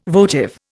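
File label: "votive", Indian English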